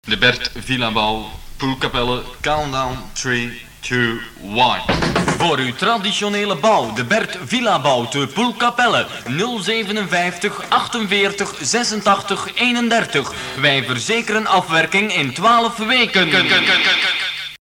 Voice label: commercial